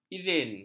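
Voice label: "izin", Malay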